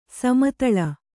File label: "ಸಮತಳ", Kannada